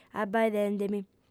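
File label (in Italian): "abbaidende·mi", lingua sarda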